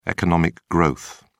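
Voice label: "economic growth", British English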